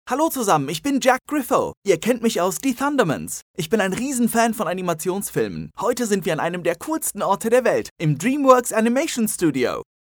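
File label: german male character; voice demos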